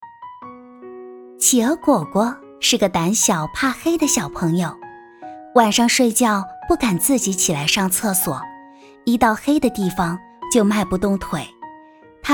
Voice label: 儿童类讲述故事; 勇敢不要害怕打倒黑黑怪

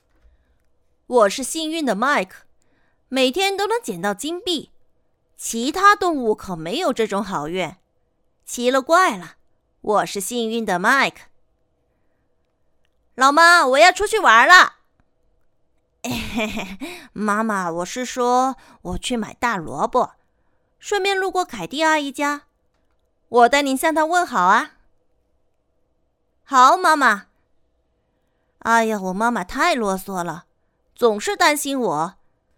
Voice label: 男童音

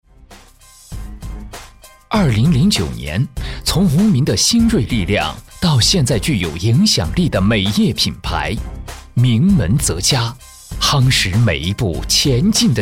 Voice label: 解说美业; 名门泽佳

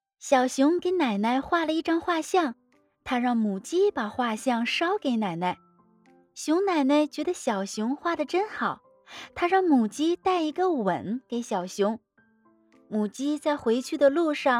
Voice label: 儿童故事; 熊奶奶的吻

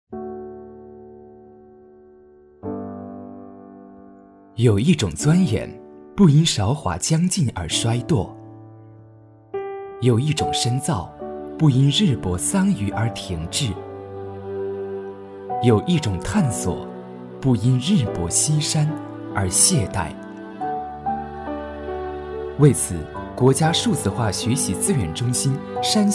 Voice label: 暖心广告; 老年人活动平台

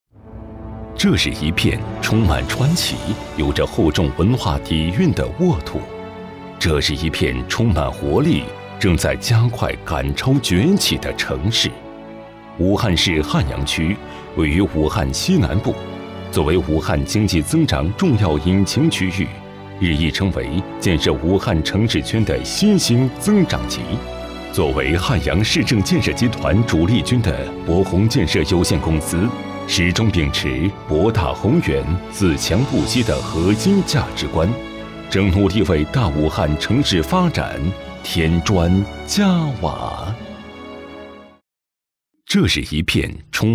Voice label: 专题大气; 工程项目

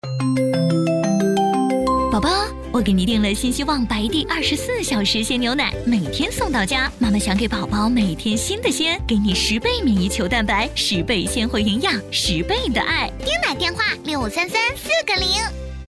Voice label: 电台小时营养晚安

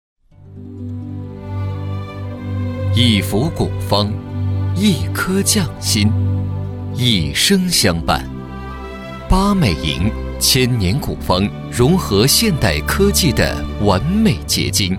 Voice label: 专题 古风韵味

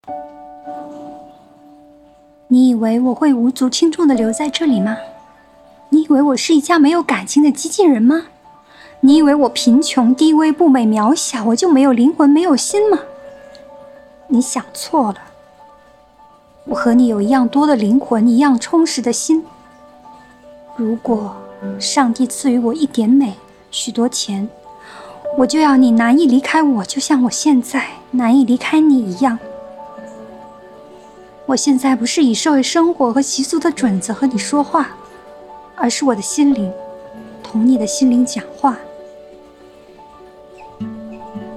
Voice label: 电影 独白 配音; 简爱

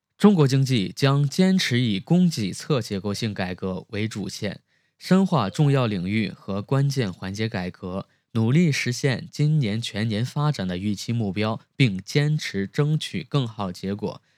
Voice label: 新闻类

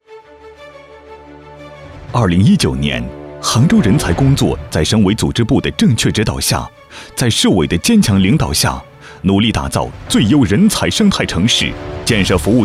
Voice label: 颁奖十大工作盘点

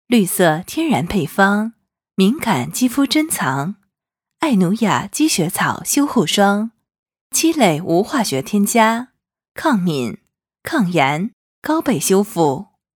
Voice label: 电视广告化妆品

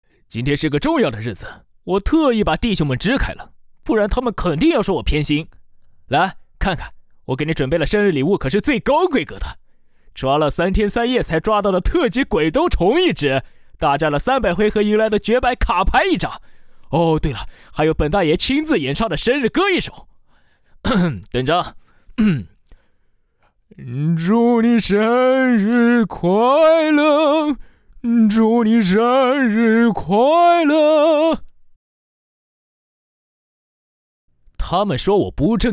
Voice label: 游戏中二角色

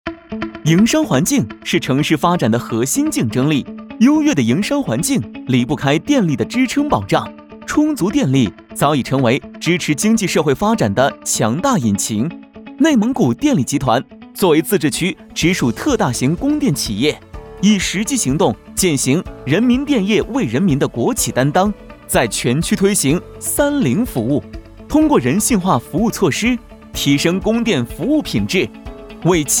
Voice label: 专题科技轻快

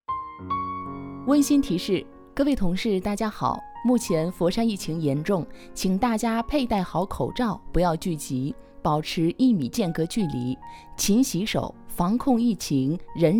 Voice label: 防疫广播配音音乐版

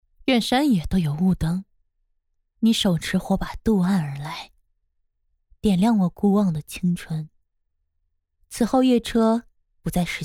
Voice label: 御姐样音

